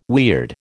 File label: "weird", American